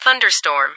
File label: ThunderStorm, ATIS